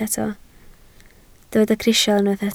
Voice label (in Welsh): "crisial", Welsh